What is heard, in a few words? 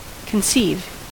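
"conceive", American English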